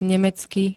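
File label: "nemecký", Slovak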